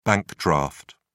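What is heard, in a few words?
"bank draft", British English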